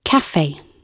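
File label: "cafe", British English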